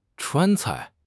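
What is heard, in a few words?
"Chuan cai" Chinese; 汉语口语新时代-初级下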